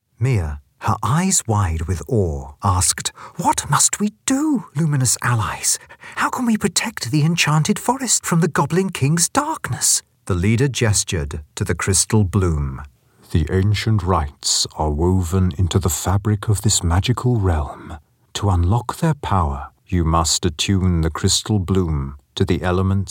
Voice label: audiobook

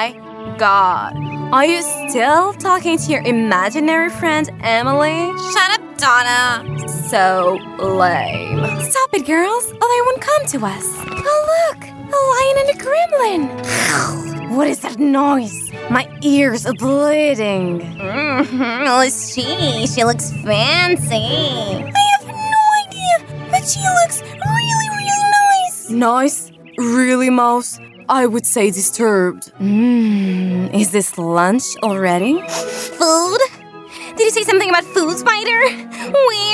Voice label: french female character, Parisian French Female

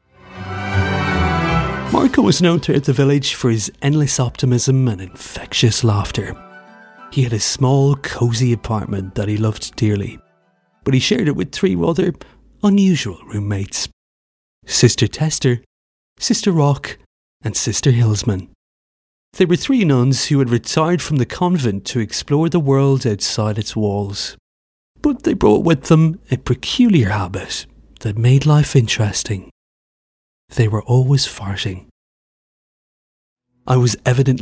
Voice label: irish voiceover narration, Southern Irish Male

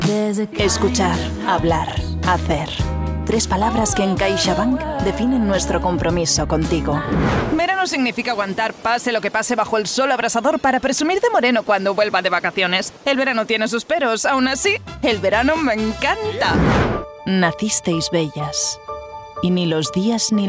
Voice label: Catalan brand portfolio reel Spanish

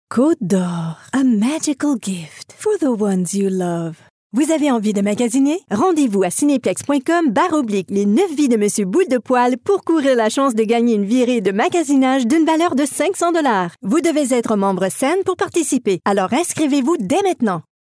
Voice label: french canadian female commercial

